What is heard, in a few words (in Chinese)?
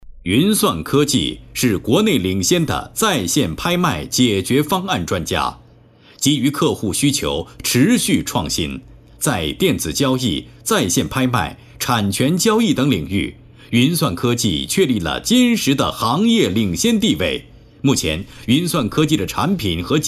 boy, zhuanti; Chinese